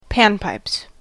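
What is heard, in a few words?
"panpipes", English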